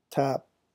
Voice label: "tap", phonetics